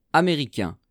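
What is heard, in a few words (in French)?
"americain", French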